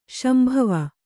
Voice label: "ಶಾಂಭವಿ", Kannada